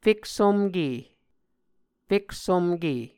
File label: "feksomke", muscogee